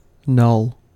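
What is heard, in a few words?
"null", American English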